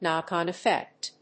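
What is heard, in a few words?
"knock-on effect", English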